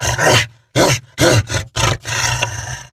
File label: Attack Grineer Wolf, en; Battle Barks